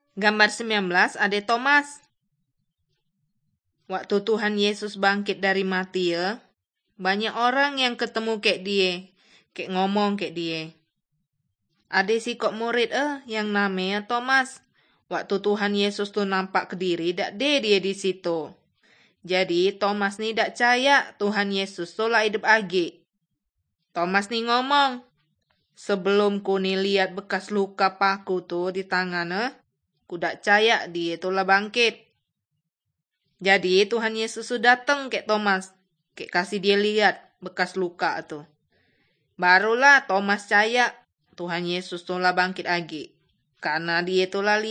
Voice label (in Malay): kabar baik good news malay bangka